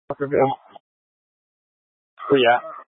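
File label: Incident Tac 3, Scanner audio recording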